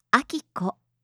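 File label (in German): "Akiko", japanische Mädchennamen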